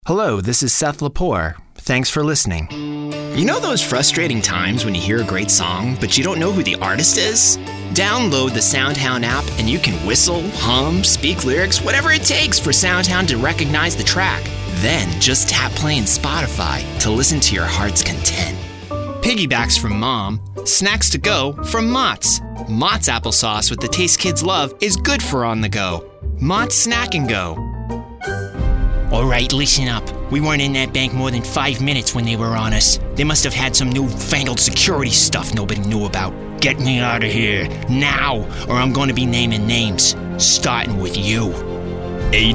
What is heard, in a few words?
VO Demo